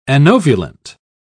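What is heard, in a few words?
"anovulant", British English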